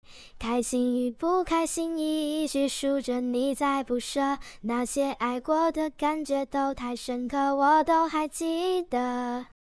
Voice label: AI kid; acestudio